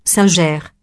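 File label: "singer", French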